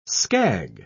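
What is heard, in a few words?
"scag", British English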